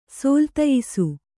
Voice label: "ಸೋಲ್ತಯಿಸು", Kannada

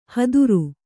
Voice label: "ಹದುರು", Kannada

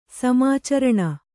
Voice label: "ಸಮಾಚರಣ", Kannada

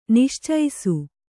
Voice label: "ನಿಶ್ಚೈಸು", kannada

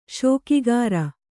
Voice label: "ಶೋಕಿಗಾರ", Kannada

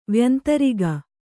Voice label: "ವ್ಯಂತರಿಗ", Kannada

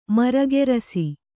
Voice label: "ಮರಗೆರಸಿ", kannada

